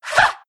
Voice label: anger; naga siren